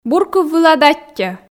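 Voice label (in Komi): "бур кыв вылад аттьӧ", Komi, female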